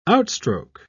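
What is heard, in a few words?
"outstroke", English, British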